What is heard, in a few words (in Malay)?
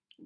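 "bali", Malay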